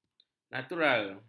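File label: "natural", Malay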